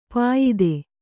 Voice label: "ಪಾಯ್ತ", Kannada

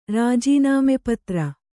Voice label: "ರಾಜೀನಾಮೆಪತ್ರ", Kannada

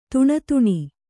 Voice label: "ದೀನತನ", Kannada

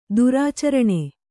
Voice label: "ದುರಾಚರಣೆ", Kannada

